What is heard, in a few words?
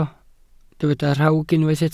"rhawg", Welsh